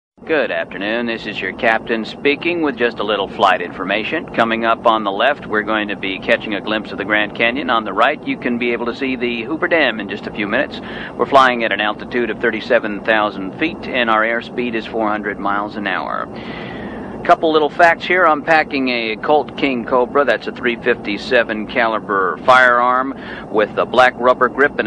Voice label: Pilot conversation; مکالمه خلبان با مسافران

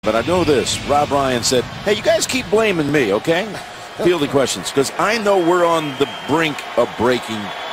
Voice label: English football reporter

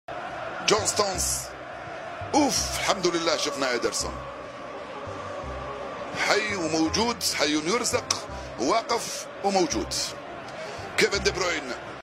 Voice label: Arabic football reporter

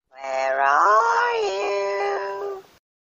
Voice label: Granny

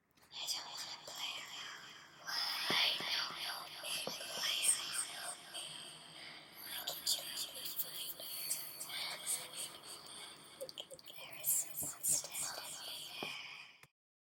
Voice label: Scary whisper

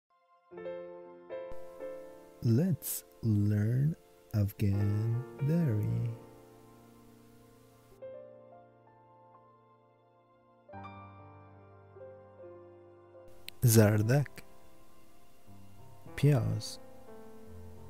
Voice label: Dari; زبان افغانی

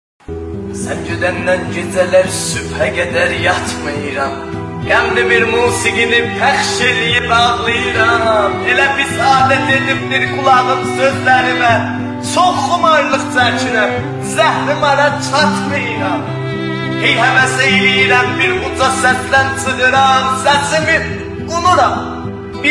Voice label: دکلمه ترکی

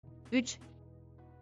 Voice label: three in Turkish

